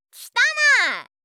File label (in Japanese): きたない！, 相づち・反応系【ネガティブ】